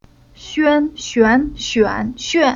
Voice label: "Xuan", Chinese; Уроки фонетического блока